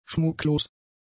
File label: "schmucklos", German; adjectives